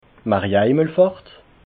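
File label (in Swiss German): "maria himmelfahrt", Alsatian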